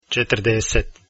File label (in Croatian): "40", Croatian